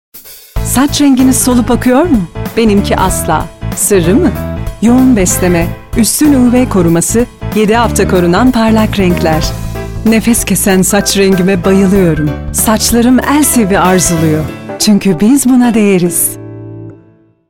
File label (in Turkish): reklam; elseve